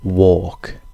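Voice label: "walk", British English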